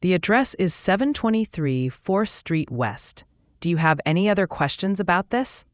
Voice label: question english